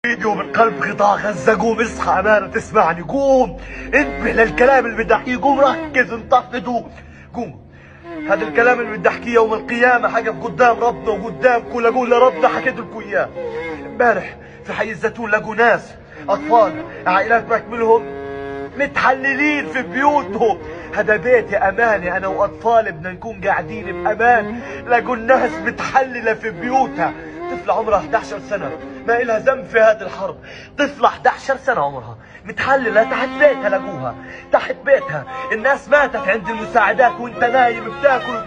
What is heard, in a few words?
man pleading in Arabic; Gaza